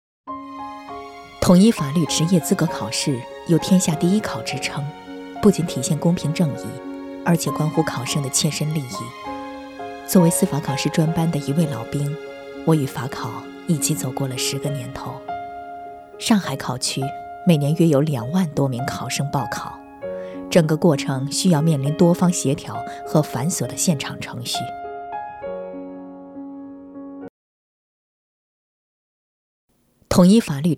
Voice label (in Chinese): 国语 温柔 亲切, 旁白; 法律考试